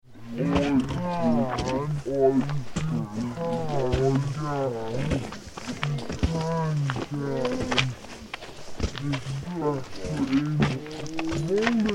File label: Children Walking Down Country Lane, Chatting And Footstep; 7 to 9 Year Olds; Talking; Tom Hutchings